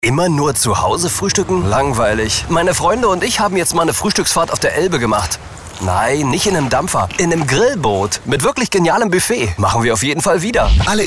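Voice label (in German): Werbung Lebendig; Fremdtake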